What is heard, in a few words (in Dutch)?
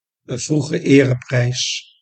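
"vroege ereprijs", Dutch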